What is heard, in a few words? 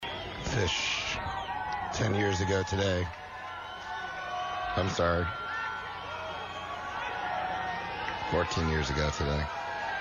radio banter; Festival 8